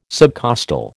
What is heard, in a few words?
"subcostal", American